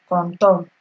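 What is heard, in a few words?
"contour", Nigerian Pidgin, female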